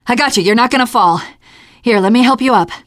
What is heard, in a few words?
ledge save, teengirl